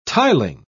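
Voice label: "tiling", British English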